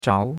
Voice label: "zhao2", chinois; Cours-de-Chinois